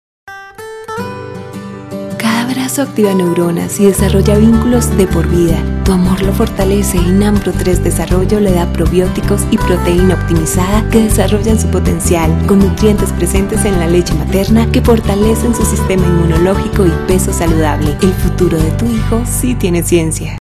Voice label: spanish latin american others

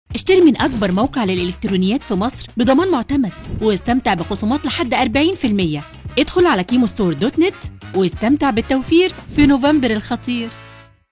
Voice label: arabic announcements

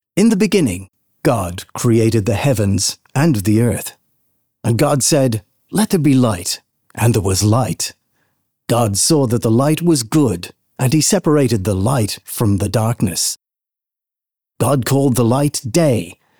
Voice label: english irish movie trailers, irish voice actors

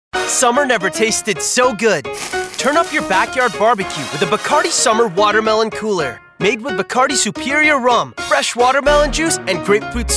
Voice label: english canadian commercial

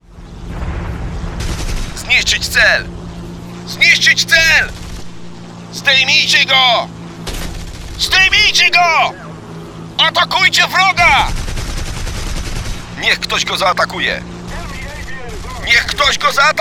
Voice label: polish video games

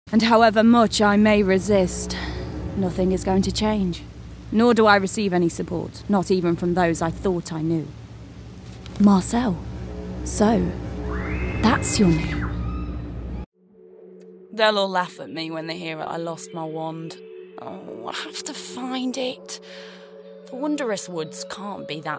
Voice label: english british video games

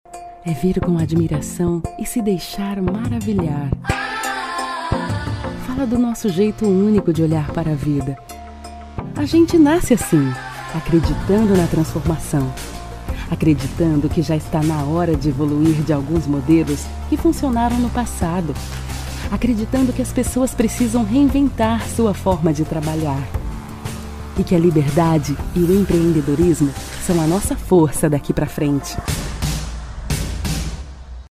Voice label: portuguese brazilian promos

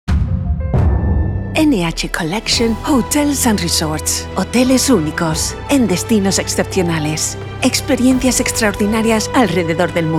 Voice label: spanish commercial, reliable

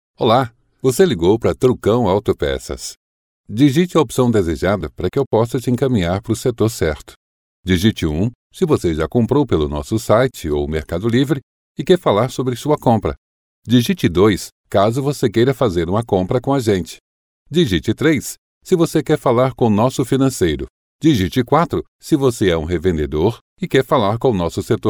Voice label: portuguese brazilian ivr; Locutor brasileiro, minha língua nativa é o português brasileiro

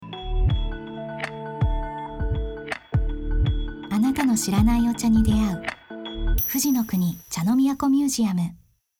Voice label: japanese promos

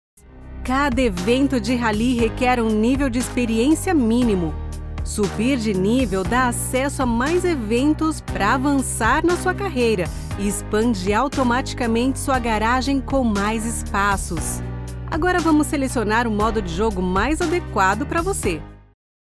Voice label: portuguese brazilian video games

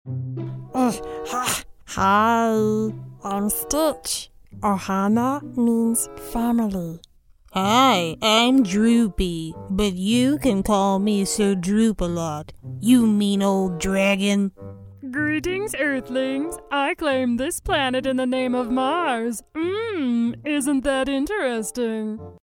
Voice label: english american impersonations

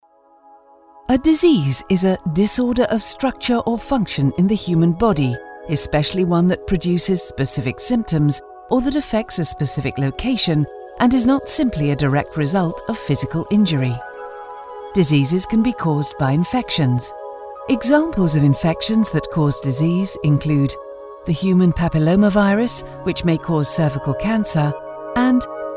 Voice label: english british medical narration; voice actors

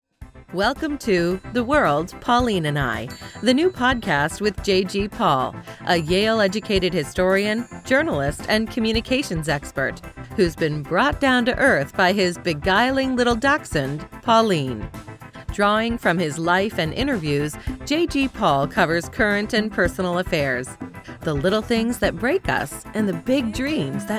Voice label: english canadian podcasts

